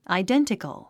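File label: "identical", English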